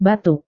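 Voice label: "batuk", Indonesian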